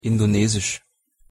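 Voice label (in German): "indonesisch", German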